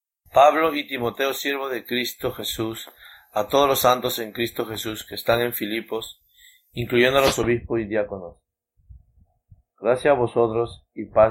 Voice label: Spanish; SupplicationandIntercession